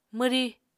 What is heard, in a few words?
"mwrí", Apatani